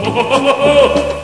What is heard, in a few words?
uncombo, announcer; hl1mp; XDM